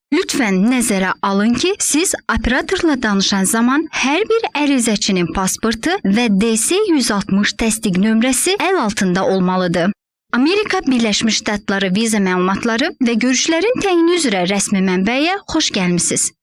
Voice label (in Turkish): Azerice Kadin; seslendirme